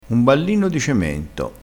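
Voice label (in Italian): "ballino", vocabolario dialettale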